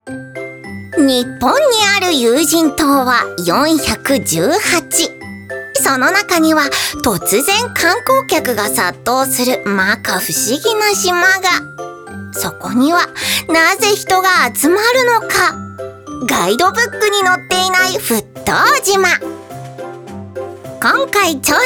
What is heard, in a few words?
narration